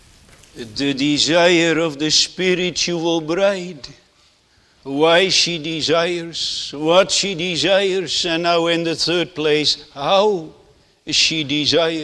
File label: Sermon Continued; The Desire of the Spiritual Bride; song of solomon 1 2